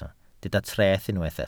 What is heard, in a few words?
"treth", Welsh